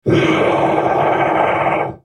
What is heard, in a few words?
ultimate effort, en US; Urgot